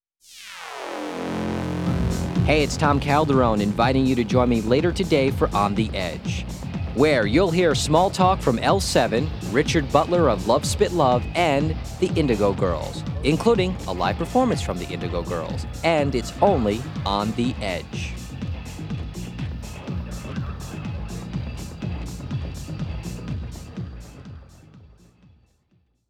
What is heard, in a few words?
promo